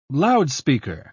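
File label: "loudspeaker", English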